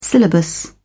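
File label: "syllabus", British English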